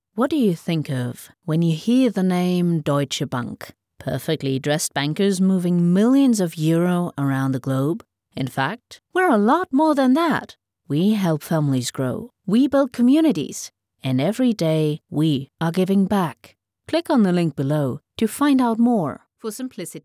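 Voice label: Explainer English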